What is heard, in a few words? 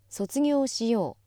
female